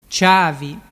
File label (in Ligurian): "ciavi", dialettu